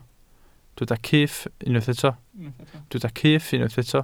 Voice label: "cyff", Welsh